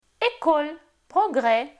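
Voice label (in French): école — progrés, French; Phonetique; Voyelles; Lingvo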